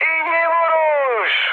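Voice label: bomb success, mood high, Turkish; speech; War Thunder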